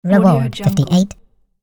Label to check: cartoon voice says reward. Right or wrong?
Right